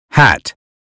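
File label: "hat", American phonetics; 英语音标发音（剑桥版）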